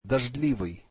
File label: rainy, russian; ممطر: дождливый [doʐdliviy]